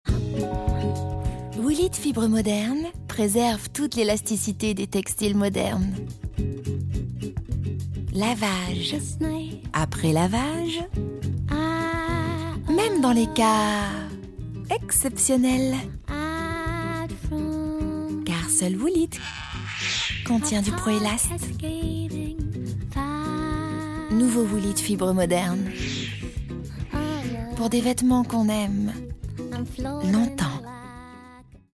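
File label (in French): Confortable souriante, voix off; Woolite; Complice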